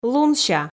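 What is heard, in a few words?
"лунся", Komi, female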